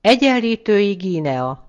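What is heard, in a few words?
"equatorial guinea", Hungarian; gcompris; geography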